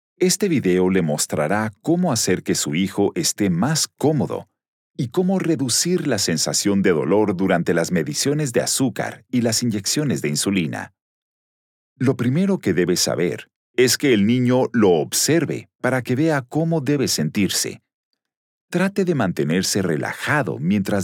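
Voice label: Spanish elearning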